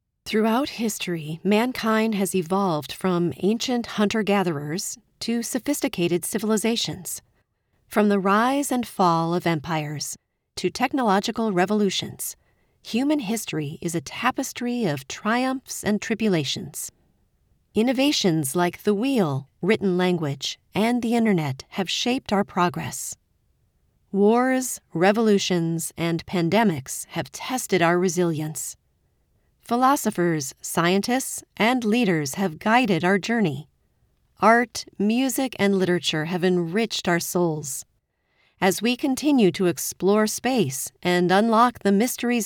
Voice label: Documentary